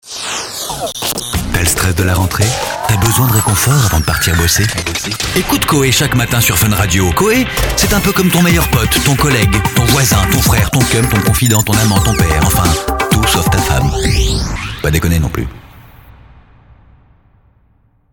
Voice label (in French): jingle femme